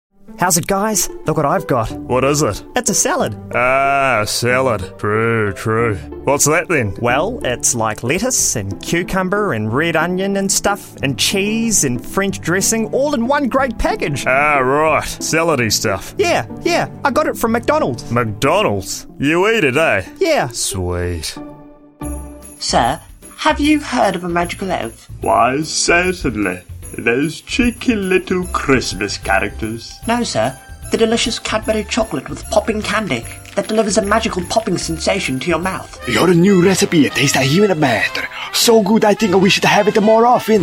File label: Voice Actor; BigMouthVoices